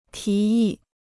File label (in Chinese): "提议", Chinese